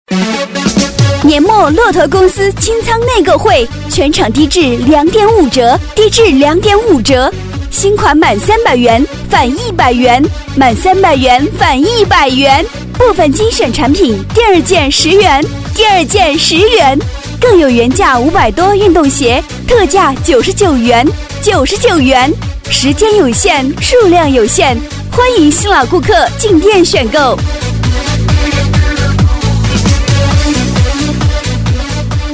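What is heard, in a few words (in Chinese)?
女-促销广告【激情活泼】; 骆驼公司; 女001-甜美激情 激情力度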